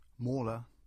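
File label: "Mawla" English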